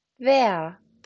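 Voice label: "wer", German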